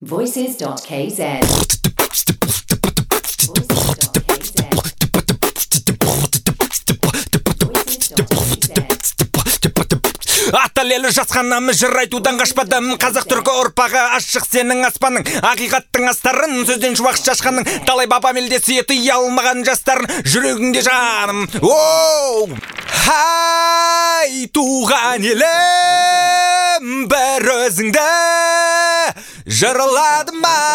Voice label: kz vocal

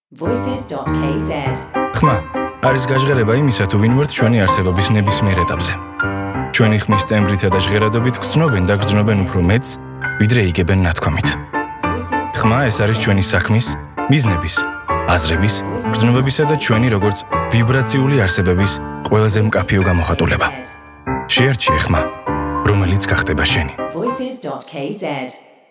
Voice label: man

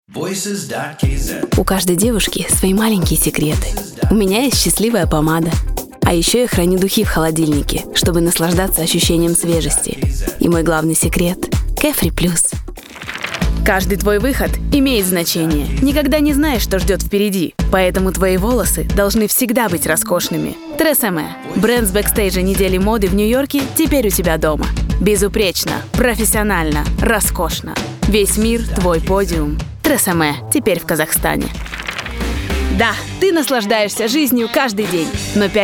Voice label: ru

